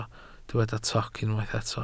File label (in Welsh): "toc", Welsh